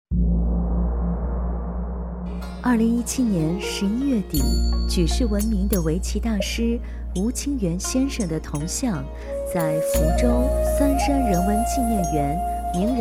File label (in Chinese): 解说词; 吴清源